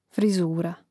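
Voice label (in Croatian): "frizura", hrvatski; mreznik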